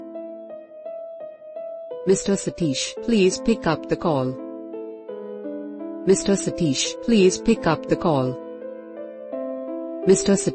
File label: Name Ringtone with Music